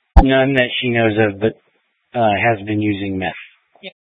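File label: Police Dispatch, Scanner audio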